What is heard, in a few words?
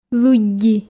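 "лыдди", Komi, female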